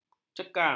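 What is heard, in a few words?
"cekang", Malay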